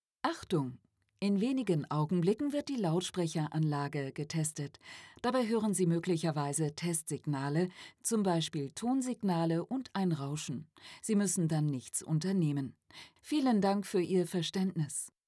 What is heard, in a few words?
German Female, Speech Samples